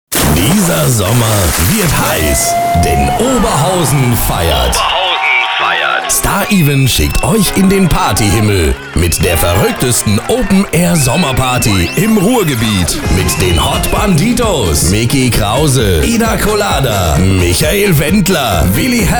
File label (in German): Radio Trailer; OBERHAUSEN FEIERT 2016; neutral